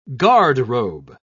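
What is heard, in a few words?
"garderobe", British English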